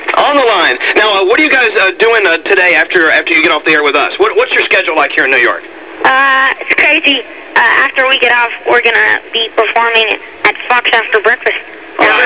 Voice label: radio

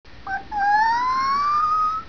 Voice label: Sad buu

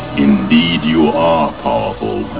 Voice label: powerful

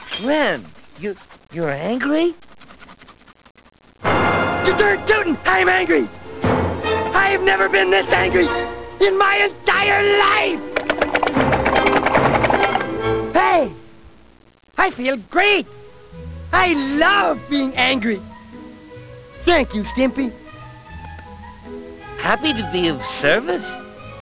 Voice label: angry

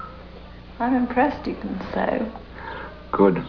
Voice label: impressed

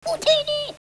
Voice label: jawa

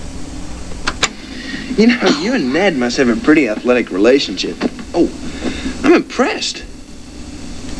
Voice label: Impressed

